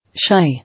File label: "Shy", English